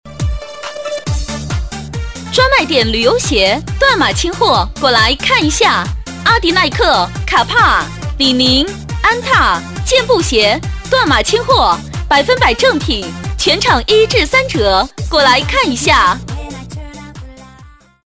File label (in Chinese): 专卖店清货 欢快